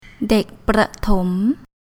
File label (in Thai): "Dèk Bprà-tŏm", Thai